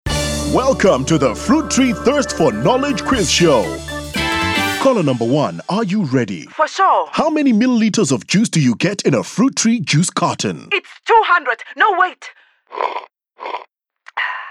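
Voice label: english South African female commercial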